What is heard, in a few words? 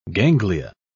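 "ganglia", English, British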